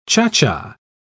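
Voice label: "cha-cha", British English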